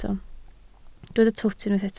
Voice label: "twt", Welsh

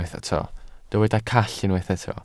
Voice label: "call", Welsh

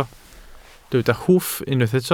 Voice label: "hwff" Welsh

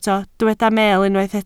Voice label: "meel", Welsh

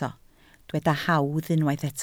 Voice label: "hawdd" Welsh

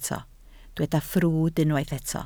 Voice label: "ffrwd", Welsh

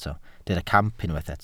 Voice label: "camp", Welsh

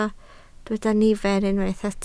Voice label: "nifer" Welsh

